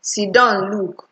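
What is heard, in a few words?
"siddonlook", Nigerian Pidgin, female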